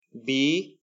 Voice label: "bee", english; ee ea words

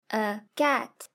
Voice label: "cat", english; grade3; fun with sentence

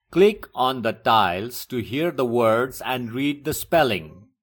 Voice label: "play", English

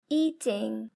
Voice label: "eating", english; grade3; fun with sentence

